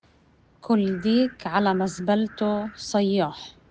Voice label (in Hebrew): פתגם בערבית מדוברת; כֻּל דִיכּ עַלַא מַזְבַּלְתוֹ סַיַّאח; كل ديك على مزبلته صيّاح; כל תרנגול באשפתו קורא; פתגם #3: גם מזבלה יכולה להיות בית